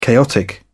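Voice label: "chaotic", British English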